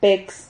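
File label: "pex", Spanish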